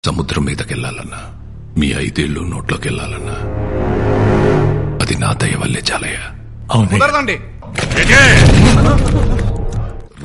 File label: Villain Dialogue; Uppena; Telugu